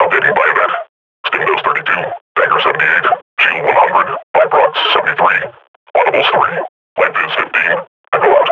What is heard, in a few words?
idle, grunt